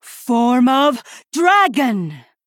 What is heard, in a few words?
Female Night Elf